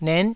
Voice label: "net9", taiwanese syllables

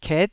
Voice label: "ket9", taiwanese syllables

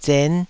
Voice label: "zen5", taiwanese syllables

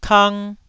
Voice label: "kang1", taiwanese syllable

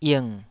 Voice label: "ing3", taiwanese syllable

